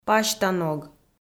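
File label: "пасьта ног", Komi, female; audio dict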